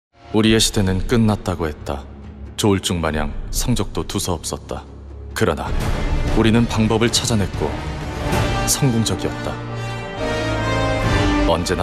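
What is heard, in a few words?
Korean male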